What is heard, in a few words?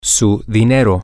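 your money, spanish, male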